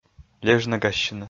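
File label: "лежнӧгассьыны", Komi, male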